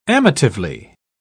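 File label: "amatively", English, British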